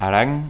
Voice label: "alan", Occitan